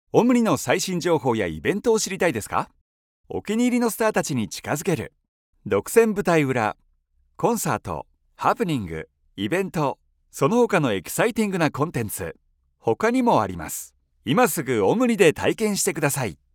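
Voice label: Japanese, male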